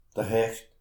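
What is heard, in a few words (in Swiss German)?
"hecht", Alsatian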